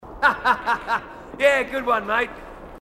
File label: marine aussie; h2dialogue